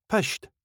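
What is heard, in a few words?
"pished", Scottish English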